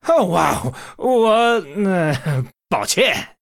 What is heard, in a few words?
Male Human Sorry, zh